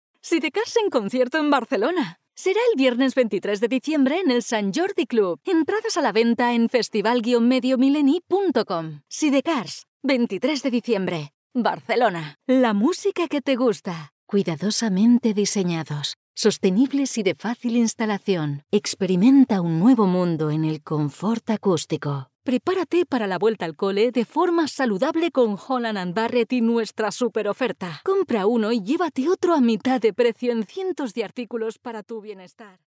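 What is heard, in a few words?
Spanish Spain, female, voice over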